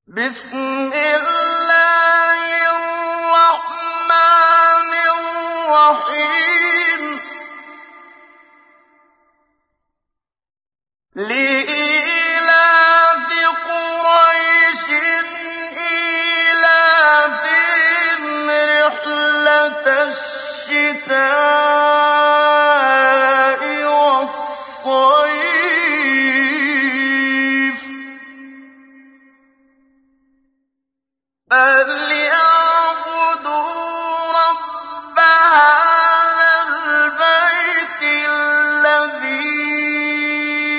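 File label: quraish, tahqiq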